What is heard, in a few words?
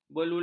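"belulang", Malay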